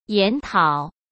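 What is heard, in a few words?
"研讨", Mandarin Chinese; chinese flashcards; hsk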